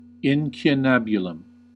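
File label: "incunabulum", US English; pronunciations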